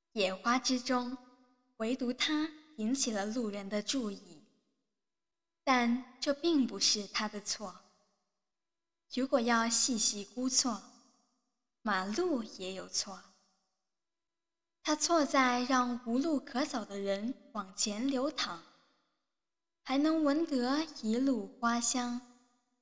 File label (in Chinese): 美文配音 试音 温柔知性型